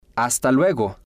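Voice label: "hasta luego", Spanish, male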